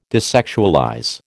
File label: "desexualize", American